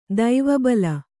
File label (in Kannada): "ದಿವಾಬಲಿ", Kannada